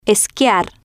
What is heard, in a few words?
"esquiar", Spanish